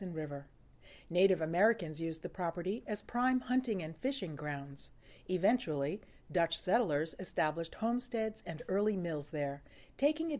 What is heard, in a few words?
Upper Landing English